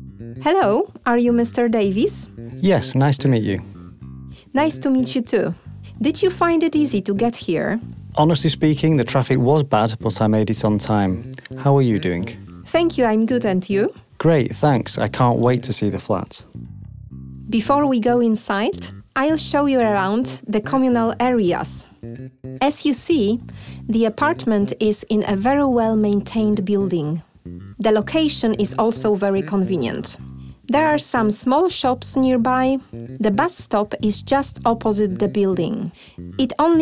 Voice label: Dialog